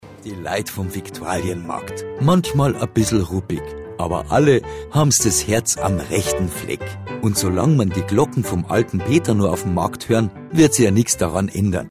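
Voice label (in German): Einleitung Hörspiel; viktualienmarkt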